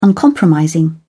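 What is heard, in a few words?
"uncompromising", British English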